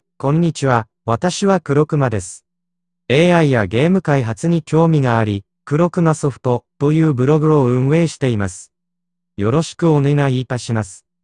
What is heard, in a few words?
self introduction male voice